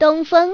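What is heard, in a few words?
"dong", Chinese, female; 女; 欢乐麻将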